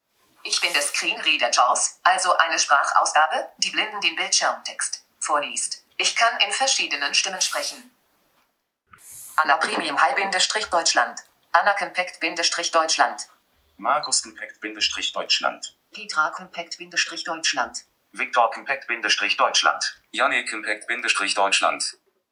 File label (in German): Hoerbeispiel Screenreader JAWS